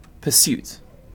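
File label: "pursuit", British English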